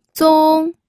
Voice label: "zong1", pinyin

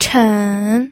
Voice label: "chen3", pinyin

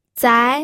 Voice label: "zai2", pinyin

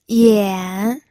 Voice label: "yan3", pinyin

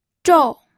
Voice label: "zhou4", pinyin

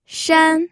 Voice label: "shan1", pinyin

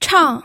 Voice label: "chang4", pinyin

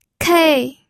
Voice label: "kei4", pinyin

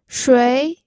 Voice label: "shui2", pinyin

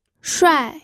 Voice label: "shuai4", pinyin

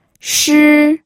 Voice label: "shi1", pinyin

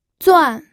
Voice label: "zuan4", pinyin

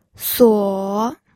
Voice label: "suo3", pinyin

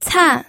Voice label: "can4", pinyin

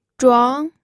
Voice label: "zhuang2", pinyin

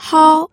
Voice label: "hao1", pinyin audio

